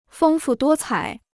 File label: "丰富多彩", Chinese